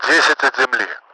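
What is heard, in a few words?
Russian speech, Mi-8MT; Messages cargo ten ground; DCS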